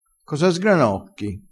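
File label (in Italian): "sgranocchia", vocabolario dialettale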